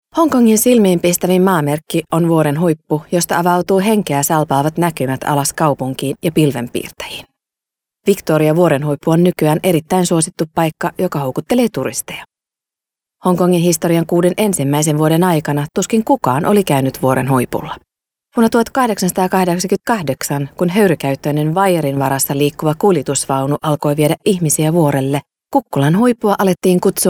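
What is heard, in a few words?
Finnish female corporate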